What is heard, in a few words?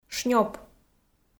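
"шнёп", Komi, female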